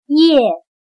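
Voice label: "叶" Chinese, yè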